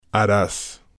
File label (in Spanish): "haras", spanish